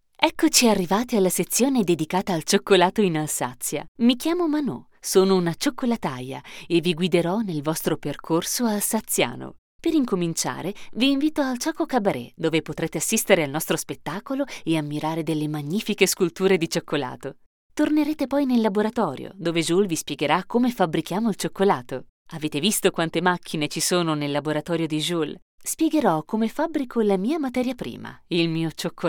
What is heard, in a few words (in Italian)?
audioguida; u87